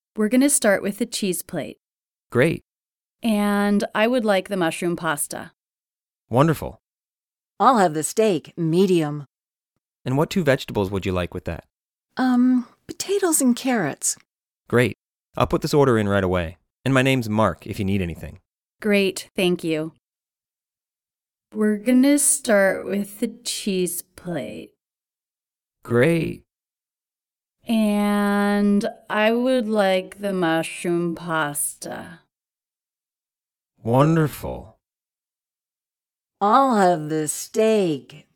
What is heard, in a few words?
At a Restaurant Dialog